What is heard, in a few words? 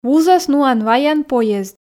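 "вузӧс нуан-ваян поезд", Komi, female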